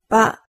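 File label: "ba", Japanese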